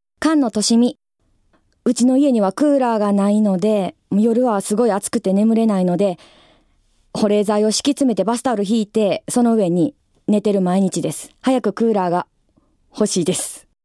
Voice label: freetalk, female voice

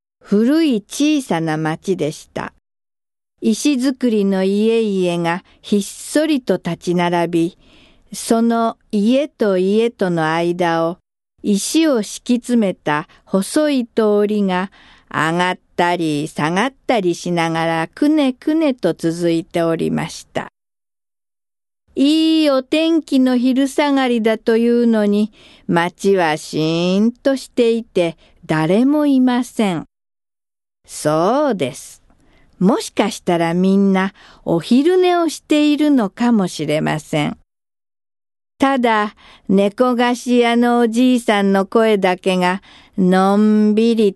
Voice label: female voice

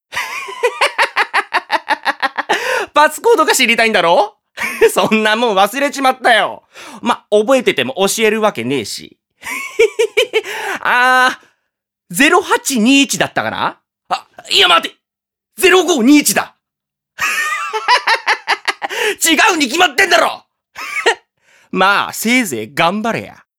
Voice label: male voice

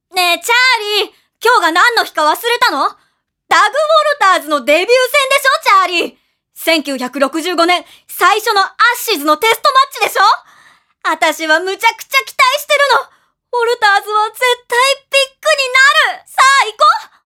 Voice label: female voice

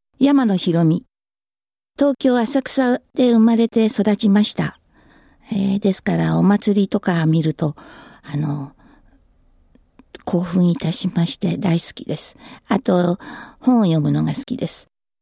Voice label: female voice freetalk

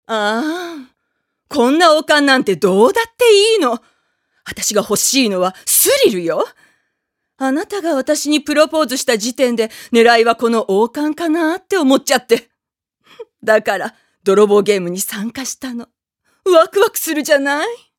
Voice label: female voice

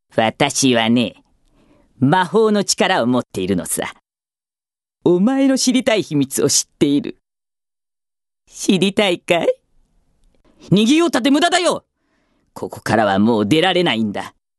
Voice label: female voice; セリフ

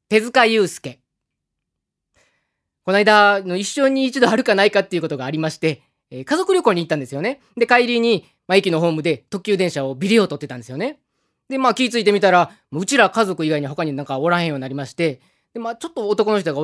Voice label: freetalk, male voice

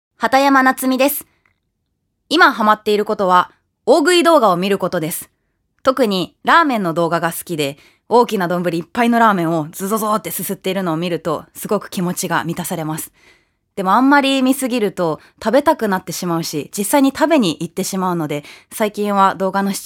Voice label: female voice freetalk

